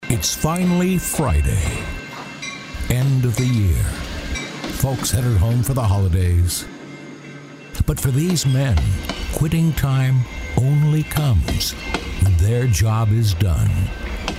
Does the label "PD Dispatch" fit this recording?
No